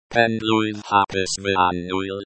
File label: happy birthday my dear welsh